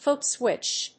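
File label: "footswitch", English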